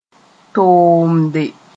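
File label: "toomde", Mooré; click one's tongue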